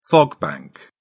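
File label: "fog bank", British English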